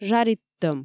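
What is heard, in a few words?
"жариттӧм", Komi, female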